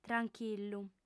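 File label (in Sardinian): "tranchillu", female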